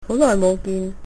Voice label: long time no see, cantonese